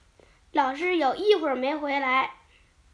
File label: Chinese Children Speech, Mobile phone